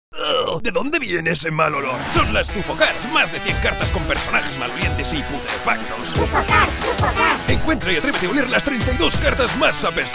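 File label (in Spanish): otros España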